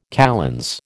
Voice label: "kalends", American